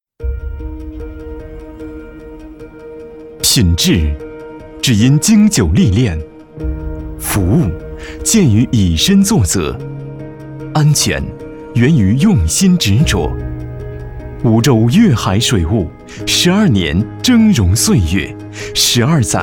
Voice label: 男 专题 水务; 梧州粤海水务

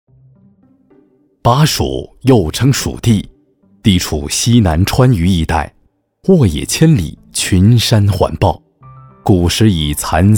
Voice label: 男 纪录片 纪录片风格; 巴蜀

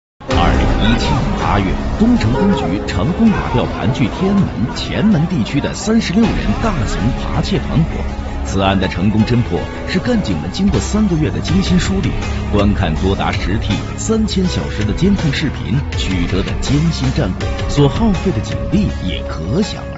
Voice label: 男,纪录片; 北京市公安局人脸识别系统

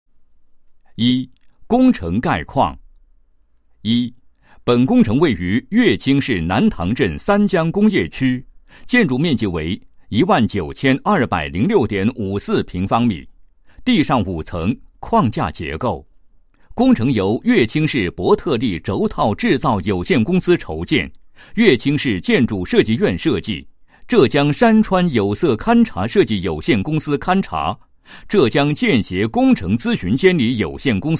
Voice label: 男 项目; 伯特利轴套制造有限公司厂房

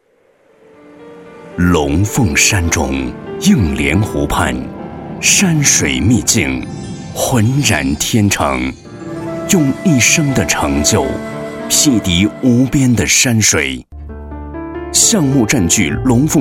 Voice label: 男 地产宣传

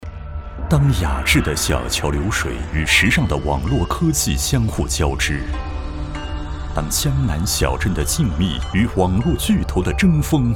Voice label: 男 高端; 世界互联网大会

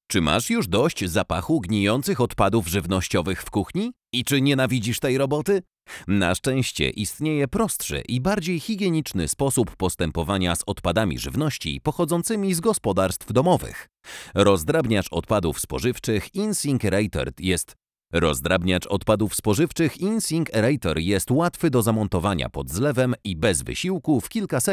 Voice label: 波兰语男 产品介绍

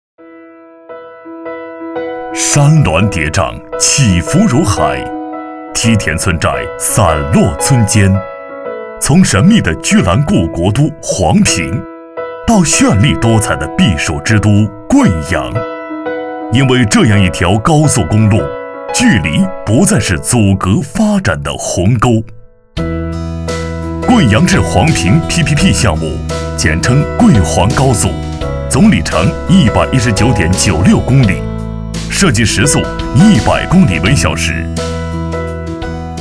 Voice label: 男 宣传 工程介绍

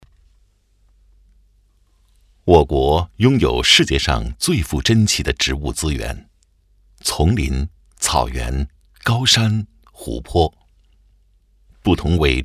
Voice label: 男 纪录片; 苎麻

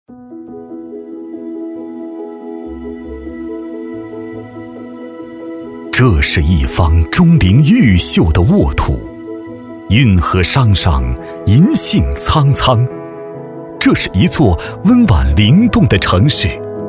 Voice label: 男-浑厚; 城市之光

